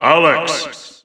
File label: English Announcer; Alex; SSBU